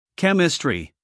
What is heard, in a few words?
"chemistry", us male; everyone-can-use-english